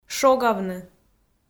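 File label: "шогавны", Komi, female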